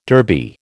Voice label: "Derby", American